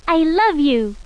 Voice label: I LOVE YOU female